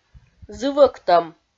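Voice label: "зывӧктӧм", Komi, female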